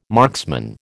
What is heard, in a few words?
"marksman", American